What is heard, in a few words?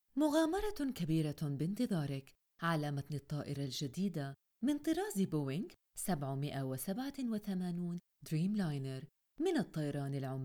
oman arabic